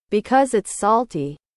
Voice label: english